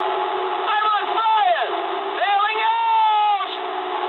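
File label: English on fire mood med; War Thunder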